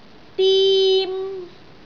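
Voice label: "dim1", Cantonese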